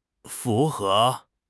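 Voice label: "fu2 he2", Mandarin Chinese; HSK4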